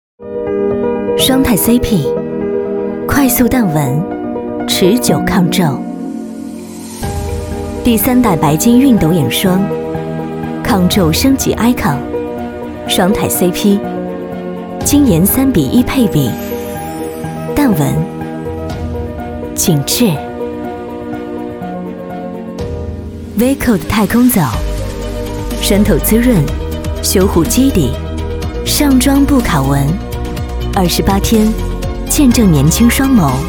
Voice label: 女, TVC广告-眼霜; 双肽cp

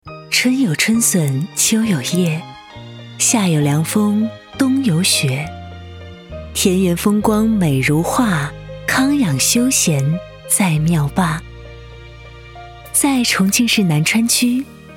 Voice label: 女 纪录片 风景专题

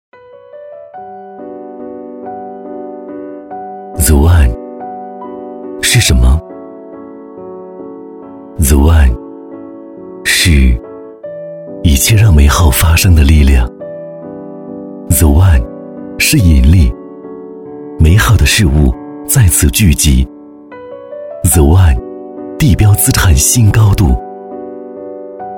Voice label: 男 走心旁白; the one

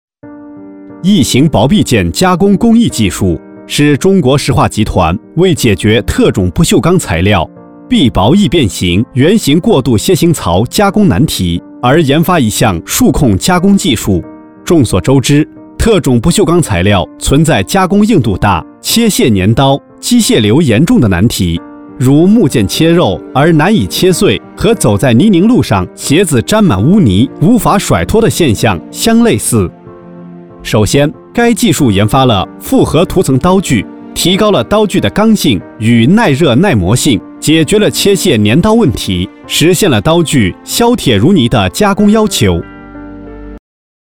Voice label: 男 解说 加工工艺

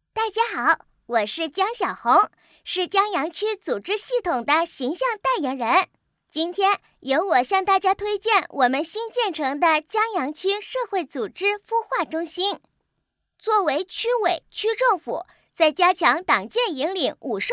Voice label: 国语女童

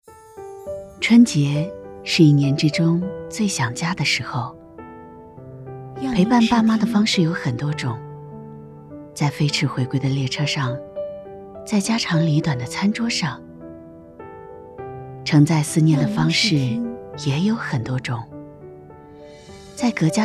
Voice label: B女 宣传片 走心温馨; 新华新春

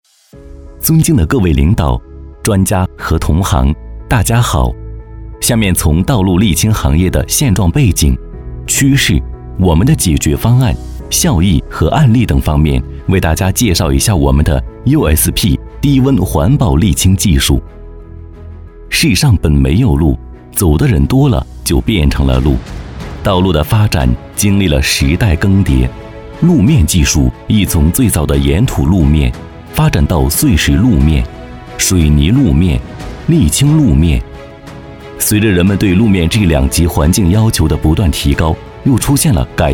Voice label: 男, 专题-PPT解说词

